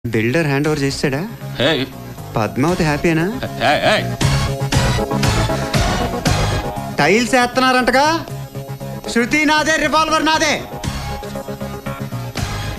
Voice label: Dialogue Telugu Ringtone; Pokiri